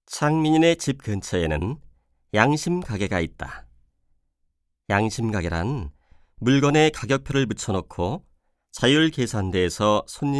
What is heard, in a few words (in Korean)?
내레이션; 도덕